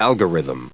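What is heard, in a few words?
"algorithms", US English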